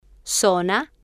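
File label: "zona", female, Spanish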